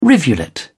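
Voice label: "rivulet", British English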